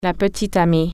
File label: girlfriend, french